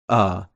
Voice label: phoneme short u, American